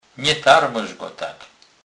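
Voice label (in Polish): "tarmosec", Kurpie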